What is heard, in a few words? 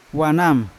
"wanam" breadfruit, Yawa